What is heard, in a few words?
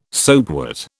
"soapwort", British